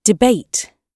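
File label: "debate", British English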